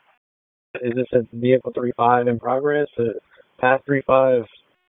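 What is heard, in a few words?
Police District Dispatch, p25; Raleigh; Southwest; wake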